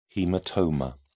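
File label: "haematoma", British English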